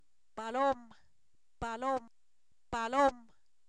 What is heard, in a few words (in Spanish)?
Zapoteco, san miguel albarradas; animales; paloma; INALI